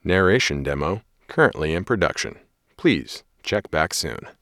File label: Narration